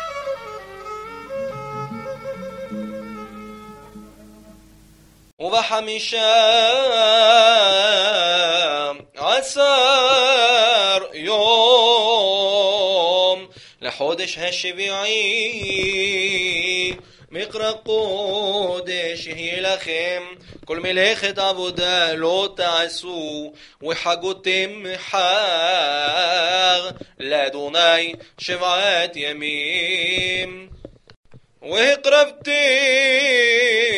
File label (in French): maftir de souccot tunisien; 1er jour de yom tov